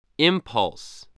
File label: "impulse", voice